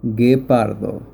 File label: Cheetah spanish